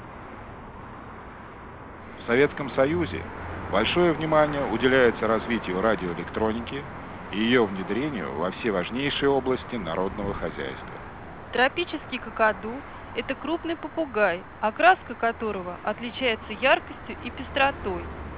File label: speech street +12dB